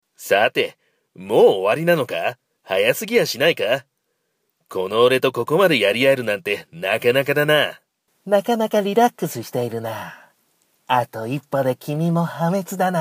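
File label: Japanese, game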